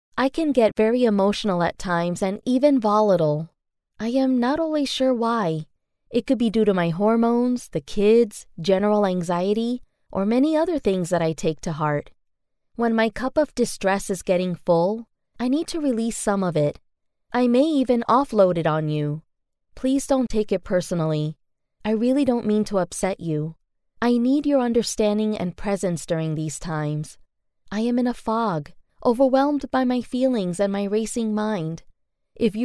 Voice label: Her Voice; Magician; magician archetype